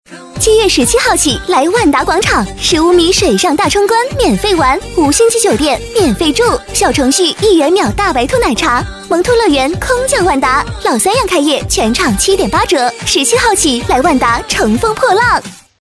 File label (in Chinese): 激情